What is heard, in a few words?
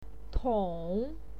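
"tou" tone 3, pinyin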